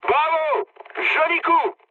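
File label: French player killed enemy mood high; War Thunder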